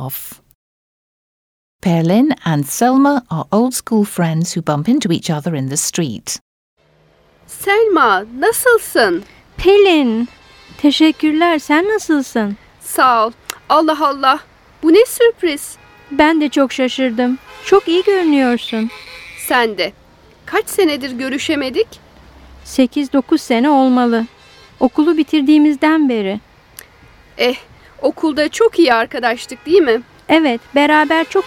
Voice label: Dialogue, Turkish